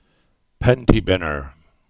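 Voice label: "Binnerton Cottage", Cornish; place-names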